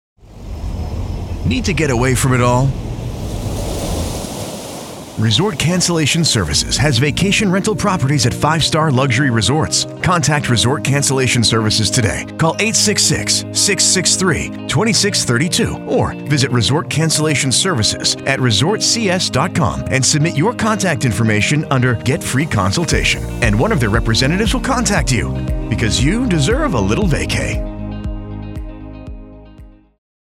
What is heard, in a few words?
Radio Commercial; Resort Cancellation